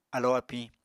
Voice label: "alo apiñ", Apatani